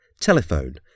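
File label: "telephone", English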